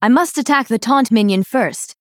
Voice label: Female Dryad ERROR